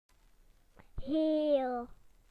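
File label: "yellow", British English; PictureDictionary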